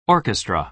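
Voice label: "orchestra", American English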